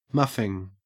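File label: "muffing", Australian English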